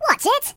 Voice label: "Watch it", voices; hedgewars